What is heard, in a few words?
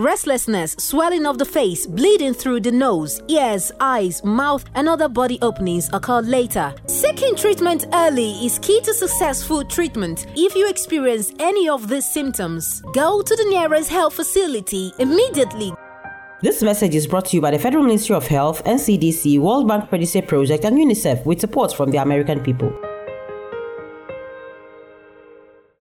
Lassa Fever Spot English